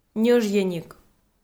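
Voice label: "ньӧжйӧник", Komi, female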